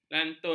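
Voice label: "lantun", Malay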